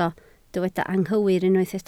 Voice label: "anghywir", Welsh